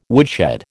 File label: "woodshed", American